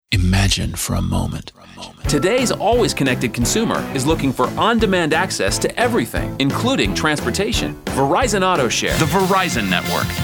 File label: Commercial